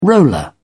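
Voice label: "roller", English, British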